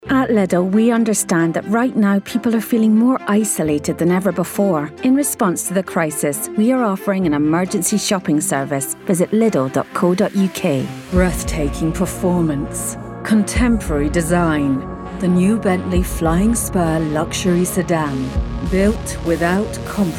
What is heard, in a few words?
Commercial